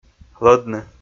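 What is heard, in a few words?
"лӧдны", Komi, male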